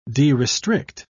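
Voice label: "derestrict", English, British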